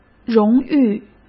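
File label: "荣誉", Mandarin Chinese; hsk; chinese-flashcards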